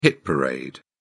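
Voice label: "hit parade", British English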